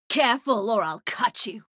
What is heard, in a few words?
Female; Skyrim Special Edition; Dawnguard Beleval